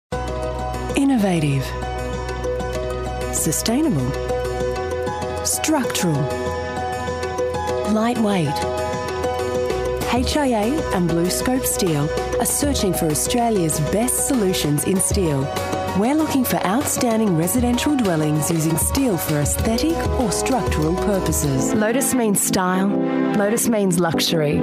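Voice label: english australian female narration; voice demos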